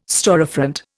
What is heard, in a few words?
"storefront", Indian